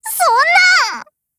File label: low mood, JP